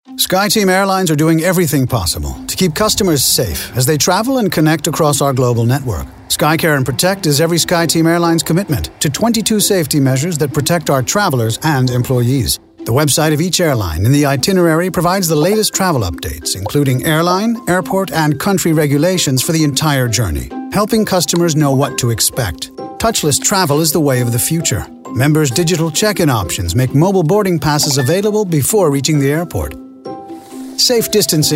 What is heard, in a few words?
authoritative friendly; skyteam